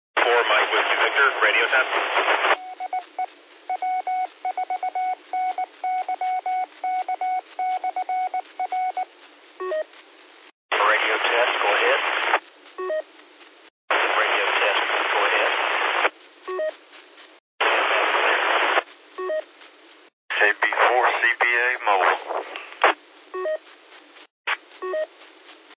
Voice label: qso rec simplex logic